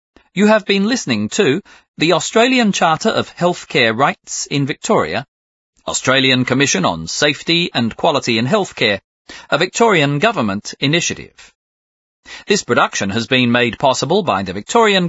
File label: closing announcement